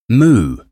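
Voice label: "moo", English; muczeć; to moo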